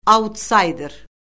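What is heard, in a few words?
"autsayder", Azerbaijani; Orfoqrafiya Lüğəti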